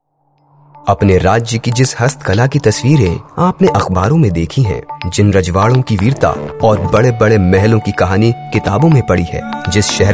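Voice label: tourism Hindi voice over, male voice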